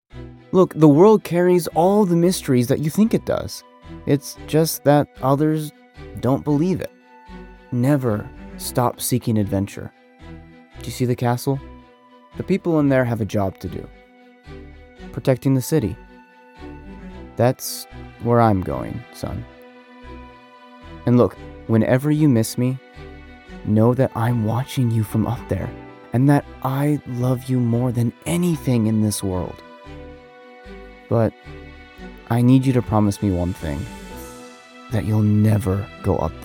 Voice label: Interactive Father Role Caring Video Game Character